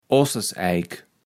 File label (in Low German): "Osseseijk", Platt